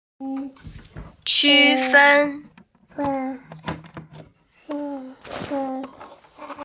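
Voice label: "qu fen", Mandarin Chinese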